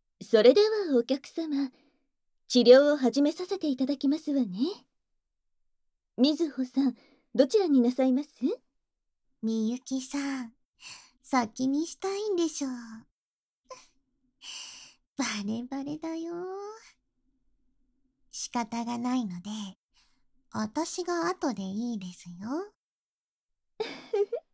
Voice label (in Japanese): 膣内寸止め検査（囁きブースト 効果音大きめ）